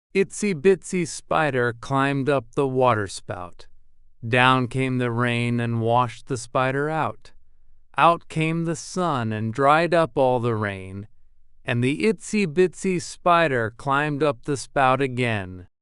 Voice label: male US; Eencey Weencey Spider

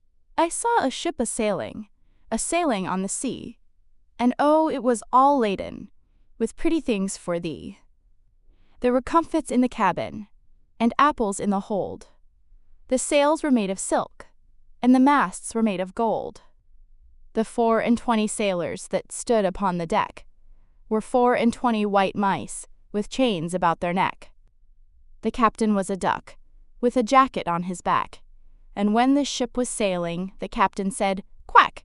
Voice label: fem us; I Saw a Ship A Sailing

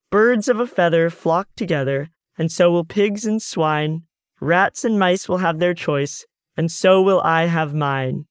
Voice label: male US; Birds of a Feather

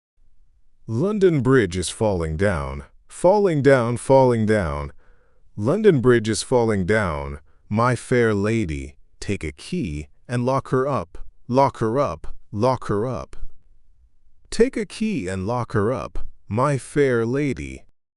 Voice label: male US, classic rhymes; London Bridge is Falling Down

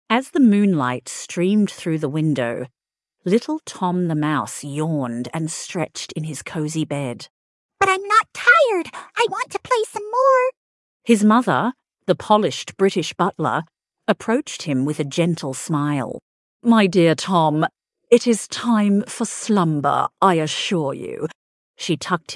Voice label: fem aus story; Go To Bed

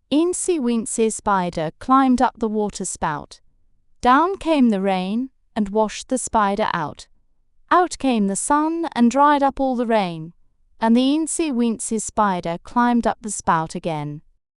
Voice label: fem brit; Eencey Weencey Spider

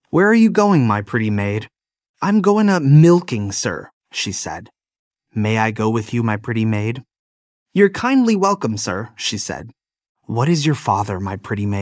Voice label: Where Are You Going male us

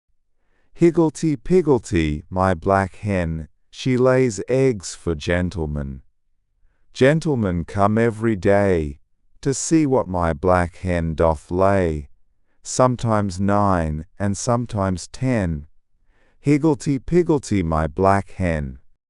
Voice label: male aus; Higglety Pigglety

